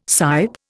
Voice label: "sipe", Indian